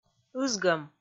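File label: "ызгӧм", Komi, female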